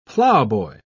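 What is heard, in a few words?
"plowboy", British English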